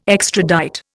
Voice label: "extradite", Indian